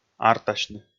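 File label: "артасьны", Komi, male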